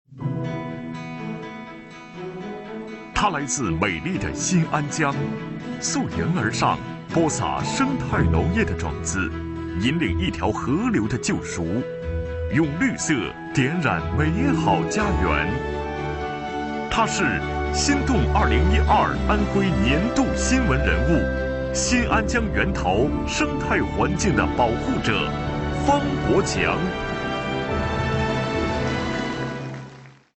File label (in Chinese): 男 颁奖 人物颁奖词, 国语男声配音